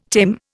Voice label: "tame", Indian